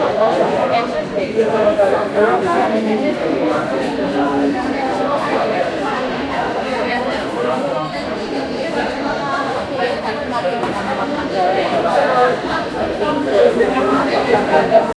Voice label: people talking in a busy